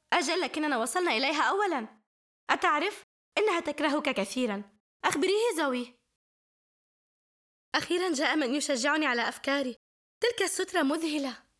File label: arabic f character